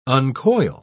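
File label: "uncoil", British English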